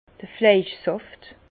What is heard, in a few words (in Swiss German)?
"fleisch saft", Bas Rhin; vin; jus de viande